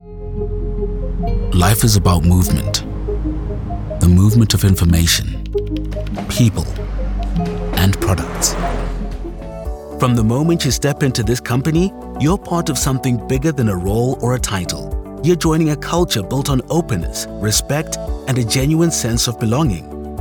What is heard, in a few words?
Corporate narration